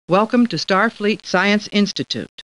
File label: Star Trek Computer Voice